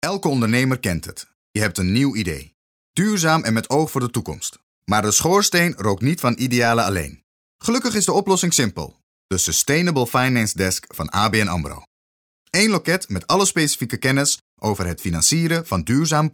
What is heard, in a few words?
Serieus